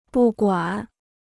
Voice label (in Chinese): "不管", Chinese